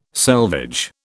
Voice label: "selvage", British